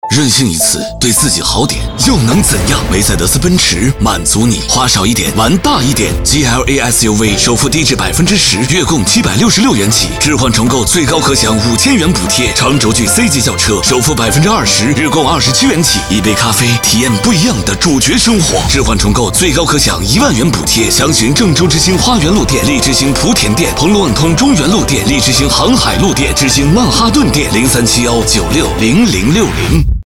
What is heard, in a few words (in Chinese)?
男国 汽车广告; 奔驰; 品质走心tvc